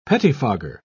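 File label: "pettifogger", English, British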